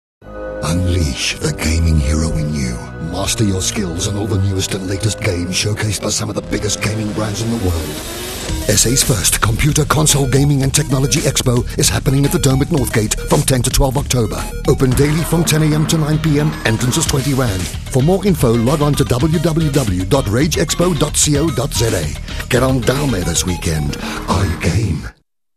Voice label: rAdio Advert; Highveld; rAge expo 2003